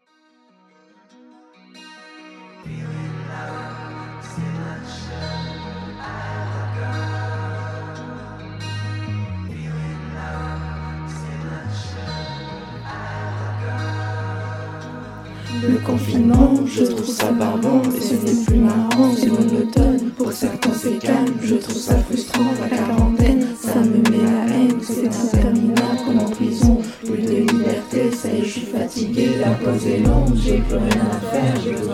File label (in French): Projet avec voix élèves